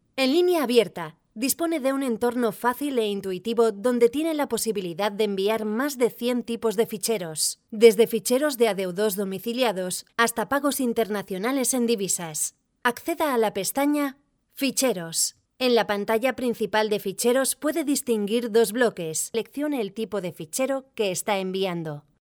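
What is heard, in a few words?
spanish female narration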